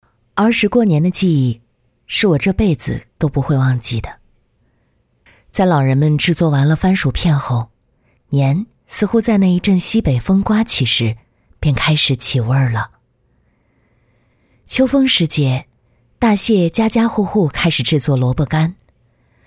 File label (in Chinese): 女 自然讲述走心沉稳